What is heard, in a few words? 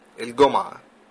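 friday, Egyptian Arabic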